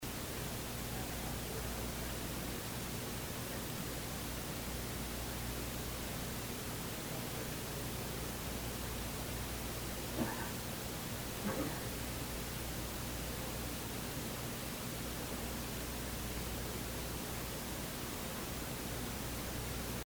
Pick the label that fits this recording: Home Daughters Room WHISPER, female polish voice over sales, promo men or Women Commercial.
Home Daughters Room WHISPER